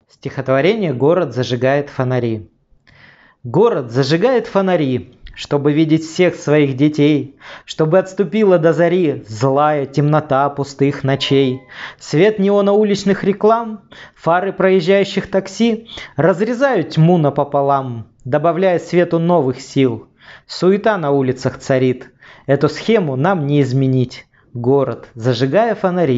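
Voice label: audio poems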